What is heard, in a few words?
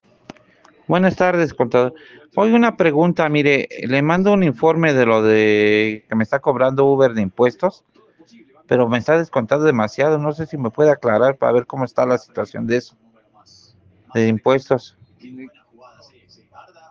whatsapp ptt